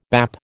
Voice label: "bap", American

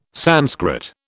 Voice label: "Sanskrit", British

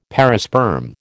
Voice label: "perisperm", American

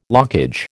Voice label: "lockage", American

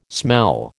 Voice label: "smell", American

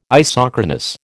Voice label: "isochronous", American

